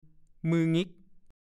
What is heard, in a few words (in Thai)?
"มืองิก", ภาษาโคราช; มือแป หรือมืองอมืองิก